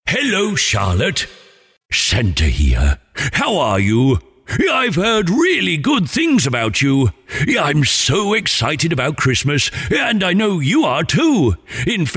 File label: Personalised Santa Message, personalised Christmas message